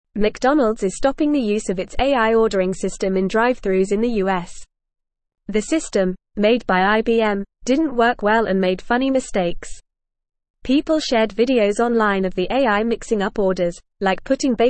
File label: English Newsroom Lower Intermediate FAST Reading; McDonalds Robot Voice Made Funny Mistakes